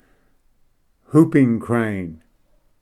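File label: "whooping crane", English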